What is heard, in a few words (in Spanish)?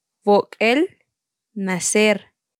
Palabras en tsotsil, Vok'el - Nacer